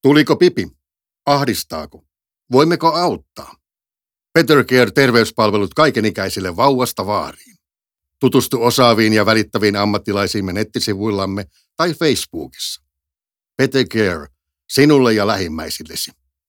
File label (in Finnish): radio